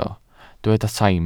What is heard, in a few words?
"saim", Welsh